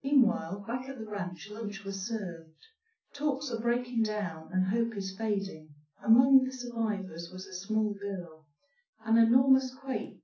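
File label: female speech; SiSEC10; underdetermined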